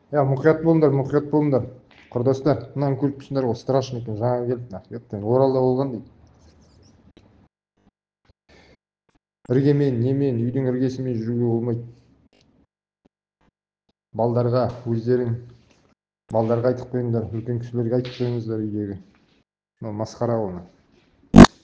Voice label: whatsapp zhelisinde tara an audio; Ана мен сәбидің үстіне мұз құлады - оқиғаның Оралда болғаны рас па?